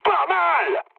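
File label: French trick mood high; War Thunder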